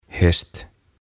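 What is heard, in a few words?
"Höst", Swedish; Syksy; OTAVA KONSERNI; tuhatsanaa; aantamisohjeet ruotsiksi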